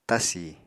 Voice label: "tasi", apatani

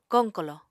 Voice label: "koq kolò", Apatani

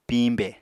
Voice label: "piñbè", Apatani

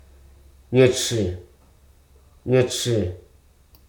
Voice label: "granary", Hruso

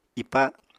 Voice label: "ipa", Apatani

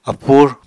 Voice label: "apur", Apatani

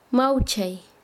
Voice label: "acariciar", Quechua Chanka

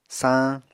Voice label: "saañ", Apatani

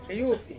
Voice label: "uwupi", Ansus; to suck

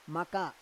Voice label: "maka", Roon

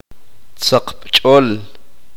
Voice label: uspanteko; tener miedo v

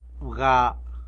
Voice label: "wga", tlacolula; necklace

